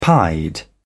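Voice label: "pied", British English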